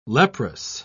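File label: "leprous", British English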